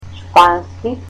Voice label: "Spanish", Macedonian

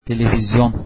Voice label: television, turkish

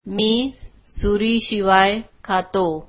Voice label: marathi I eat without a knife

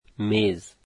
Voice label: "table", Dari

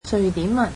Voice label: "Swedish", cantonese

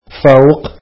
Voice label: arabic above

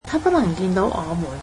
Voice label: "he cannot see us", Cantonese

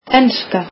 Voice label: "English", Icelandic

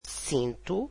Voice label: "belt", Portuguese

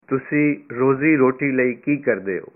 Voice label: What do you do for a living, punjabi

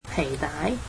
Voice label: "belt", Cantonese

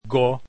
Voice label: esperanto alphabet g

